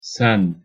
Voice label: "sen", Turkish